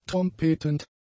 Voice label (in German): "trompetend", German; adjectives